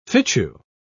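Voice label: "fitchew", British English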